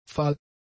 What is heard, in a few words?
"fahl", German; adjectives